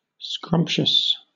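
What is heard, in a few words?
"scrumptious", British English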